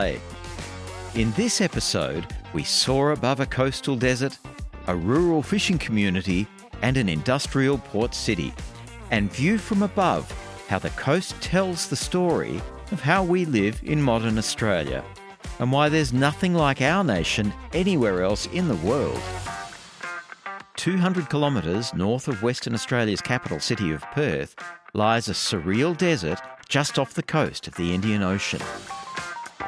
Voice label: NARRATION, Documentary, Australian Accent Tv Doco, male; ABOVE AUSTRALIA